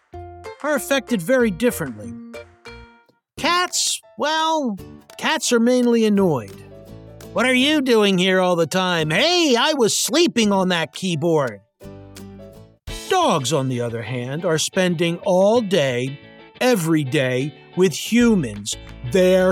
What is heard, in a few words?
Explainer Pets